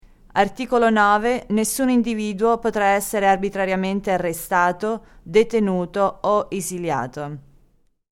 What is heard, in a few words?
Italian